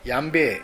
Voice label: "yanbae", minamiyamagata